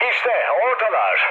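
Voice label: Turkish enemy detected, mood low, speech; War Thunder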